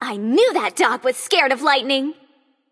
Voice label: taunt directed; discordia; cerberus

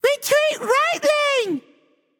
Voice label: vox retreat; smite; xingtian

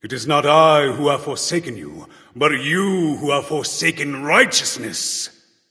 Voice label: taunt directed; smite; voicepack; thoth

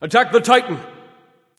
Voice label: vox attack; smite; hou yi

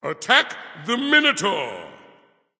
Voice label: vox attack m; smite; bacchus

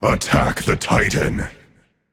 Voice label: vox attack; smite; hades

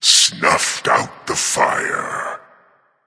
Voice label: taunt directed; cernunnos; sol

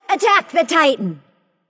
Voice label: vox attack; smite; aphrodite

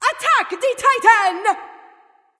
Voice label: VOX Attack; smite; izanami

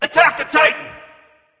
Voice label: vox attack; smite; mercury; voicepack

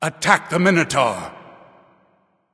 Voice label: vox attack m; smite; anubis

